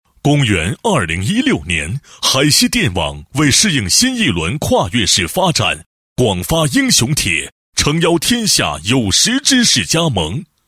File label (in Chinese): 男 旁白; 海西电网